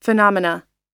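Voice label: "phenomena", American English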